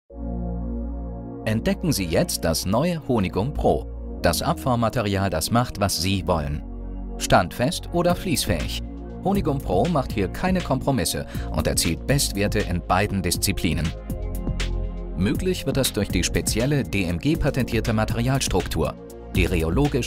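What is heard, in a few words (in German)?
Medizinischer Erklärfilm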